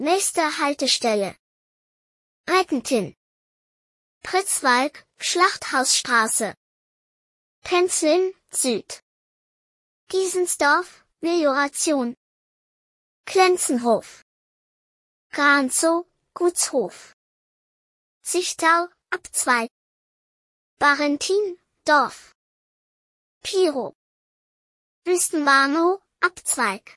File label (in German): Kinderstimme; ttsmaker